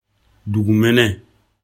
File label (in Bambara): "dugumene", animaux; afrikalan; lecture bm